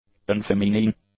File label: "unfeminine", english; adjectives